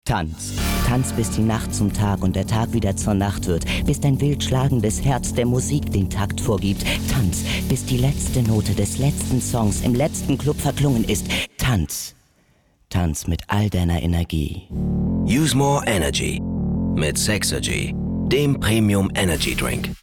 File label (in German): tanz dynamisch; sexergy